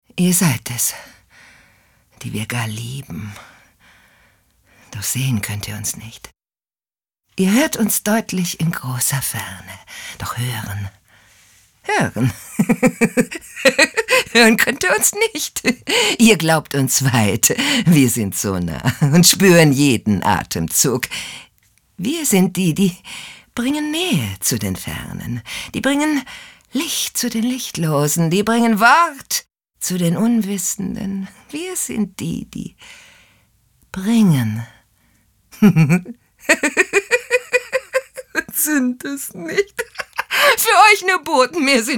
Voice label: psycho, frauen; sprecher

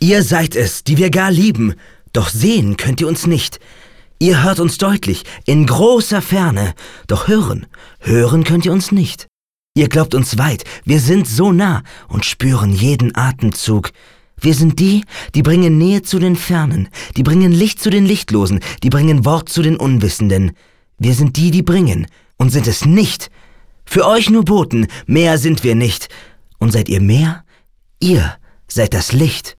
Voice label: poetisch, maenner

